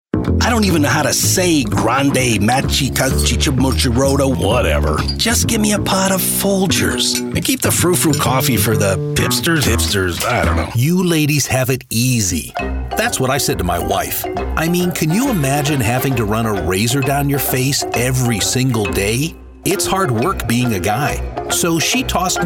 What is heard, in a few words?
Commercial